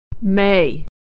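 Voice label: "may", American English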